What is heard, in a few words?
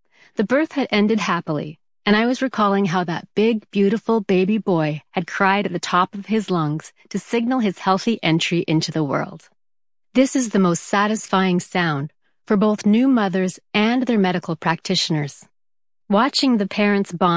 Audiobooks